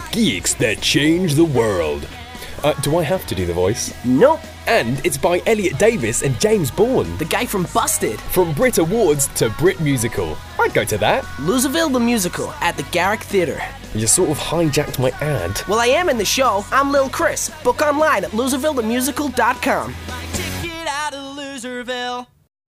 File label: english british movie trailers